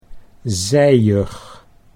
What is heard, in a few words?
"zijig", Dutch